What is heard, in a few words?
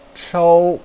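"chou", Mandarin Chinese, first tone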